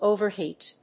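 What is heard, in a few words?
"overheat", English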